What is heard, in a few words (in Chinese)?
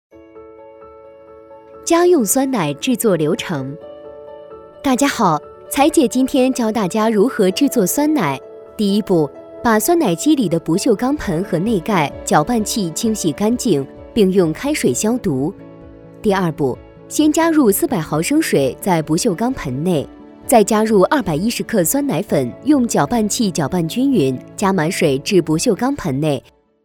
女 多媒体 产品演示 家用酸奶制作